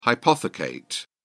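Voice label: "hypothecate", British English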